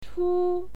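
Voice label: "chu", Mandarin Chinese, first tone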